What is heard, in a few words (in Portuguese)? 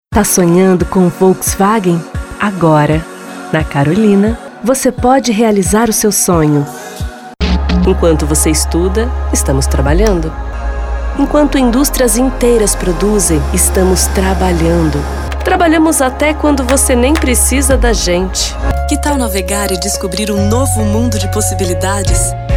voz grave padrao